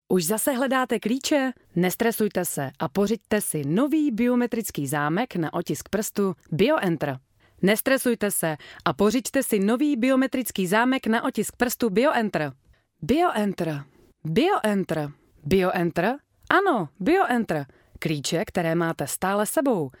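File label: Czech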